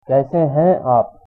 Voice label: how are you, hindi; कैसे हैं आप?; kaise haiṅ āp?